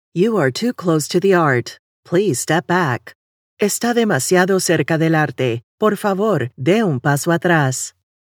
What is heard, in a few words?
Bilingual Female You are too close, museum alarm; museum alarm options